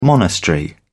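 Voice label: "monastery", British English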